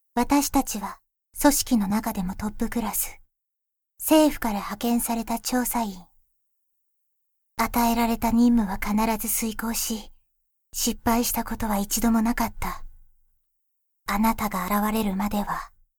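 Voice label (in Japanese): サンプルボイス, 感情のない女の子 (囁き声)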